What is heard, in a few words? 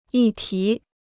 "议题", Chinese